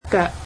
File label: feet, cantonese